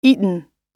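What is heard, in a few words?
"eaten", American English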